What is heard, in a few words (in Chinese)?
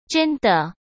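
"真的", Mandarin; chinese-flashcards; hsk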